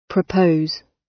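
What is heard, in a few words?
"propose", British; Language, linguistics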